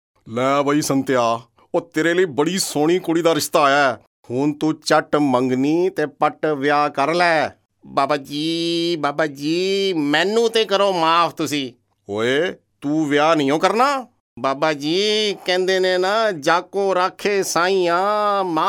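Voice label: COMMERCIAL MIMICKERY MULTI CHARACTERS PUNJABI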